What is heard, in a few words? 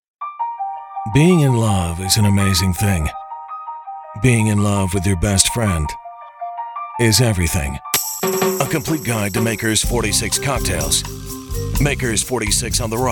COMMERCIAL